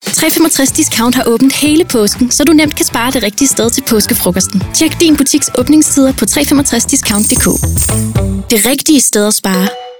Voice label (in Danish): Aabningstider Radio